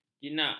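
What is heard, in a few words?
"jinak", Malay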